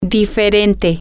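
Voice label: different, spanish, female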